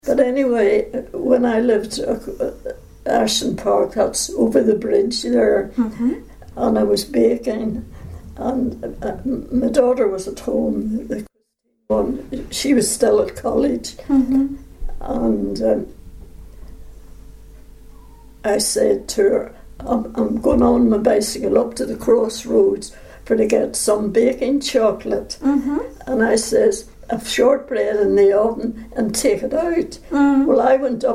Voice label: interview, Mid-Ulster English, Belfast; Belfast Metropolitan Urban Area, Cos. Antrim/Down